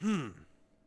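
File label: Settler Male Fort Vox; Civ4 warlords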